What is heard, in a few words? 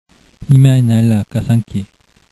quechua